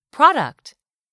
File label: "product", English; 3000 words